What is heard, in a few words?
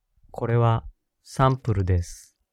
voice, noise